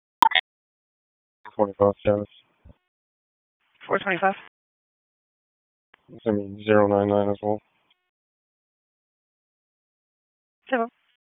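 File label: Police Dispatch; Raleigh Police Southeast District Disp; wake; p25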